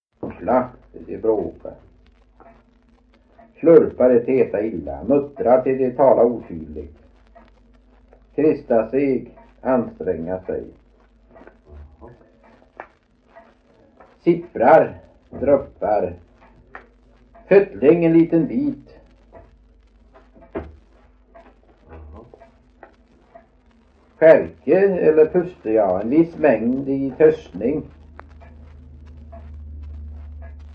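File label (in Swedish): Veddige Halland, Svenska sagor dialekter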